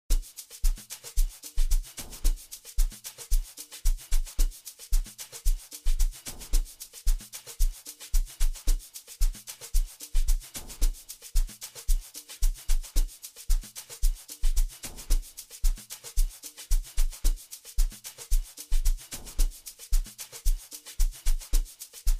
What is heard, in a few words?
Voice Over